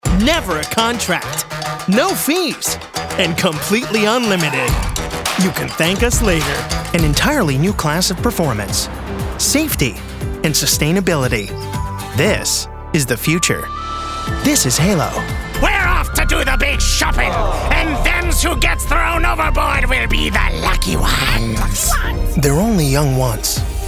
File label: commercial, english male voices american accent